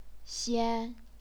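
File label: "xian", chinese pinyin, first tone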